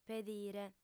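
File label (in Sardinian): "pedire", female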